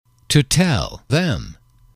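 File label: to tell you them, English, male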